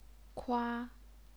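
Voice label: "kua", Chinese, first tone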